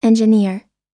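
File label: "engineer", English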